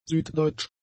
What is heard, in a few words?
"süddeutsch", german; adjectives